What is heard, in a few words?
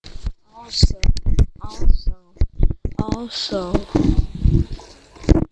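"also", spoken word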